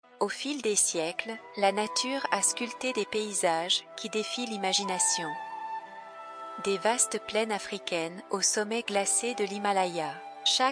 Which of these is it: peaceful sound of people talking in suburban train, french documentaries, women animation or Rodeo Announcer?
french documentaries